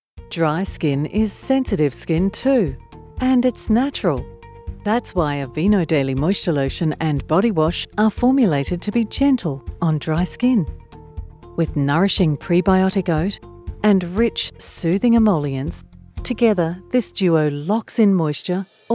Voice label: english australian